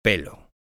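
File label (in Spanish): "pelo", Spanish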